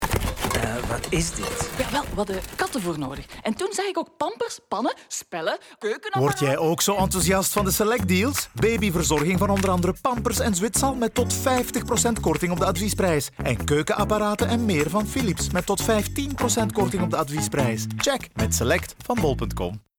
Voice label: VL, voice-overs; Bol-com

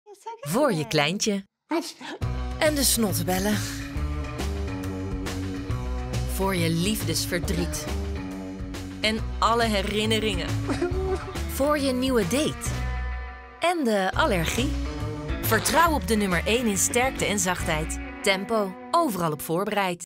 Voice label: TVC; Tempo

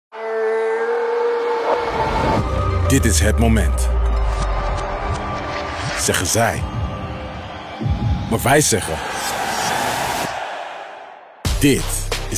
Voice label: NL; Tricorp

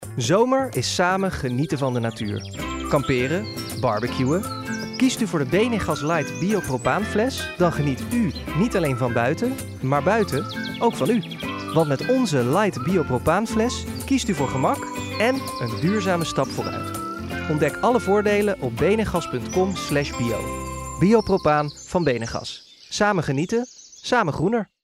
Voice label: voice-overs; Benegas

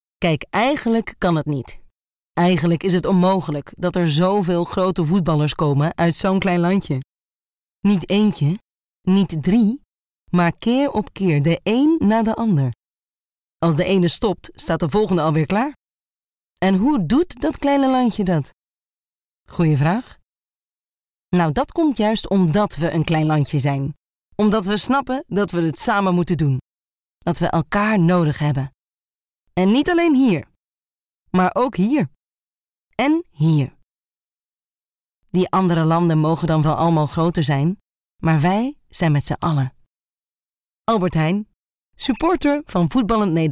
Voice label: NL; AH

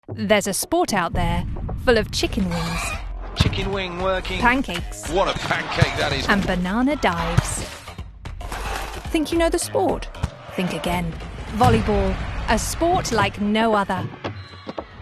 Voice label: UK; Volleybal

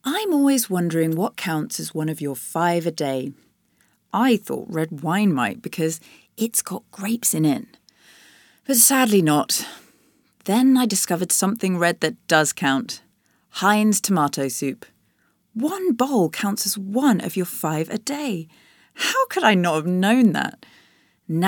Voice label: UK; Heinz

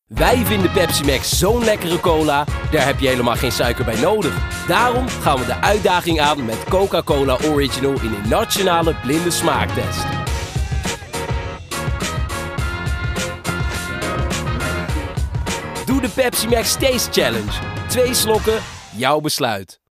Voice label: TVC; Pepsi

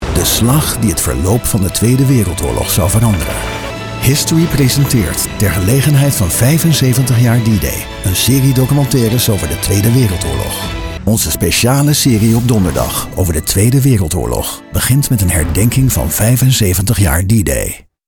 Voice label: NL History Channel Promo

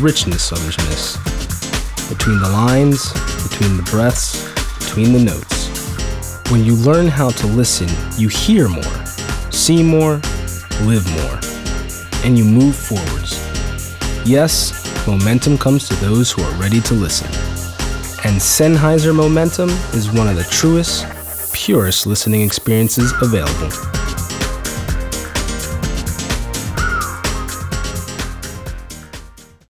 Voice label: US, voice-overs; Sennheiser demo

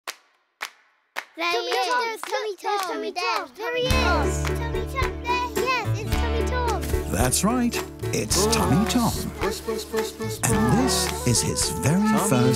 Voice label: UK Trailer; Dikke Dik; Tummy Tom The Lost Teddy Bear

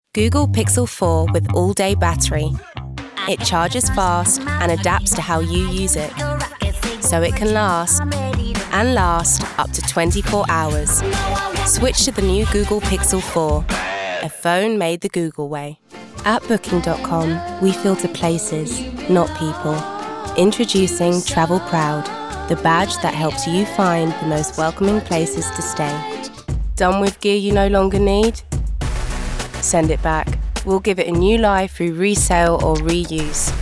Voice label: UK, voice-overs

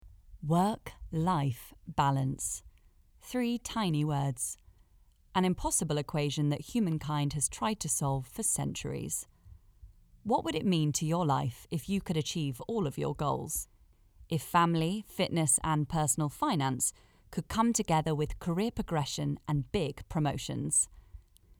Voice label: Explainer

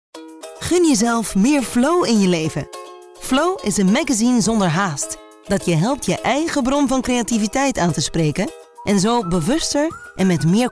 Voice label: NL; Flow

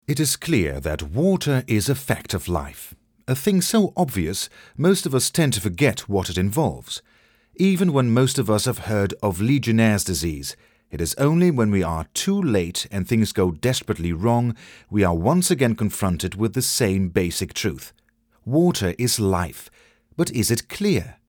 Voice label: UK; Water

